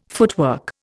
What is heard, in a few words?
"footwork", Indian